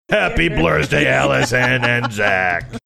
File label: saying happy blursday movie promo voiceover